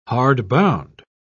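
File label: "hardbound", British English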